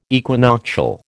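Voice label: "equinoctial", American English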